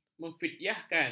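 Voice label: "memfidyahkan", Malay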